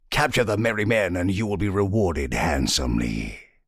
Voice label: voice launch handsomely; Sheriff Of Nottingham